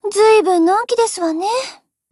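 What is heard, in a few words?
HELLO, Japanese; GF Alarm; STG44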